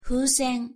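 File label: "fusen" Japanese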